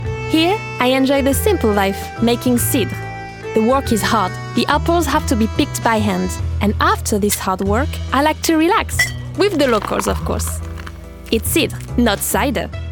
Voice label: English